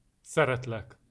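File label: i love you, male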